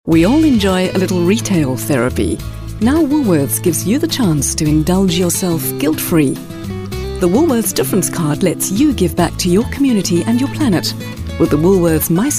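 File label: english South African female commercial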